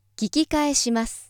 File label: female